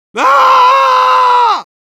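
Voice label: Medic pain critical death, Spanish; *Grito de muerte*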